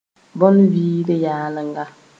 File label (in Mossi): "bõn-vɩɩl-yalenga", moore